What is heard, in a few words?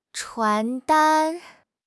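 "传单", Mandarin Chinese; HSK6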